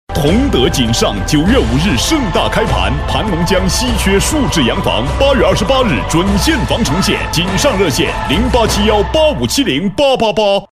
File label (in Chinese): 男 地产广告, 国语男声配音; 同德锦上音乐